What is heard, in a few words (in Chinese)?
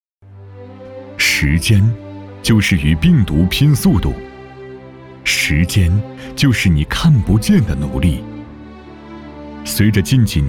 男 宣传